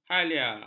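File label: "halia", Malay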